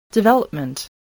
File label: "development", english spelling